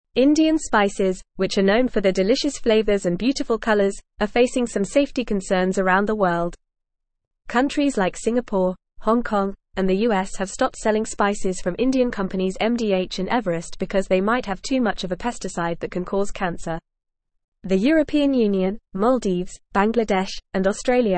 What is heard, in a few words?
English FAST Reading; Safety Concerns Arise Over Indian Spice Exports